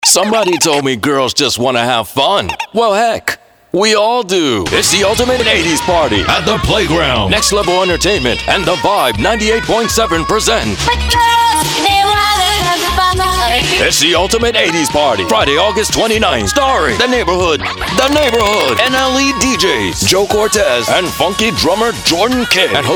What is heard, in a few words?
Voiceover